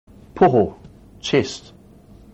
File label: "poho", Maori